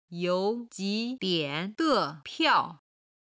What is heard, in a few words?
"you3 ji3 dian3 de5 piao4", Chinese; 時間に関する中国語表現